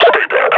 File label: announce kill zombie, combine; vo